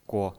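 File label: "guo", Mandarin Chinese, neutral tone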